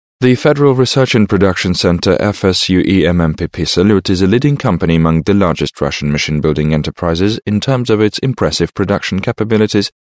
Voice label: british english male